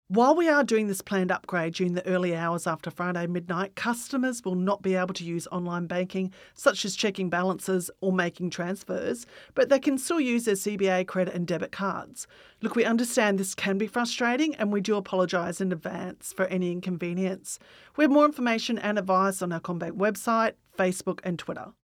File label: App Upgrade Statement Female